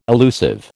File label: "illusive", American